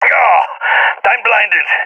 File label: blinded, balkan; balkan epic